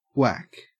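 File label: "whack" English, Australian; pronunciations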